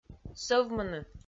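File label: "сӧвмыны", Komi, female; audio dict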